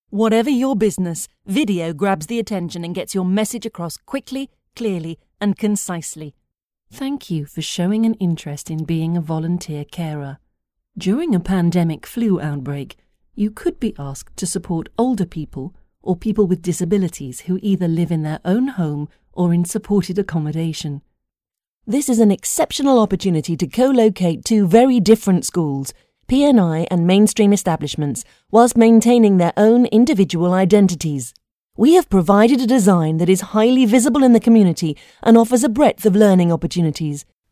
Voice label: corporate; Winner VOX 2013 Awards: Best Female Voiceover

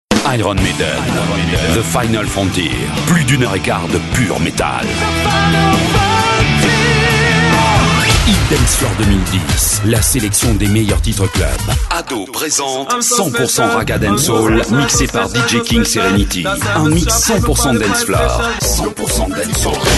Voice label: dj

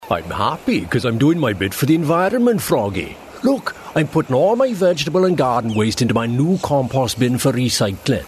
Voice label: welsh

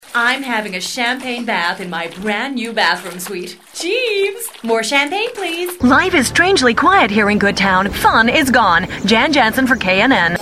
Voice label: american

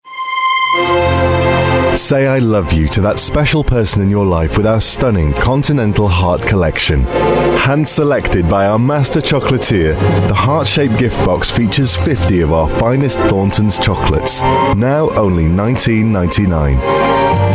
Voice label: northern accent

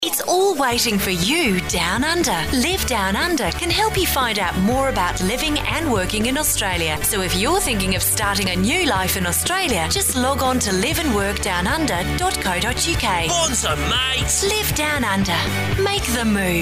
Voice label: australian accent

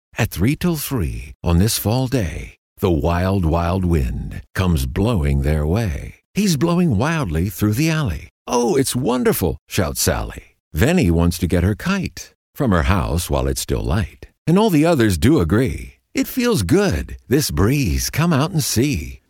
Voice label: childrens

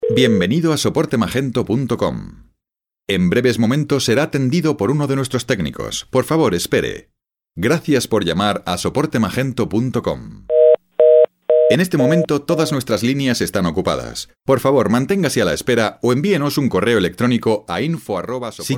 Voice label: telephone

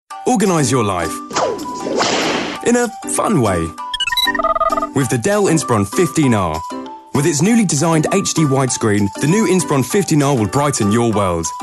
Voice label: friendly

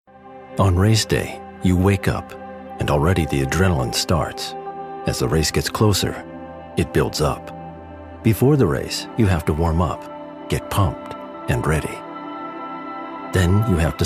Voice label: serious

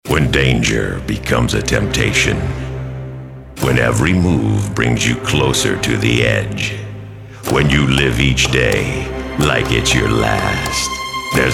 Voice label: film trailer

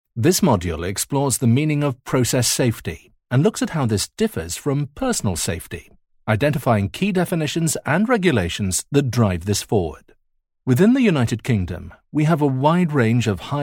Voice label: elearning

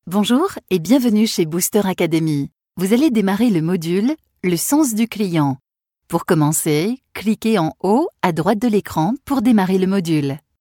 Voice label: french interactive

